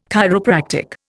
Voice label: "chiropractic", Indian